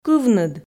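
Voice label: "кывныд", Komi, female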